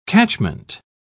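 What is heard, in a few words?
"catchment", British English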